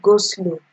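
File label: "go slow", Nigerian Pidgin, female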